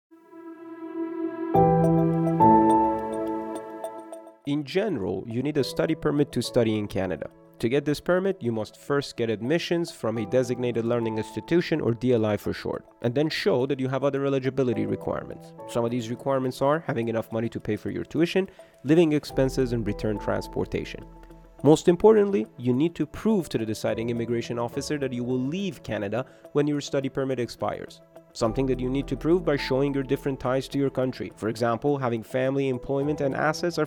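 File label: English, voices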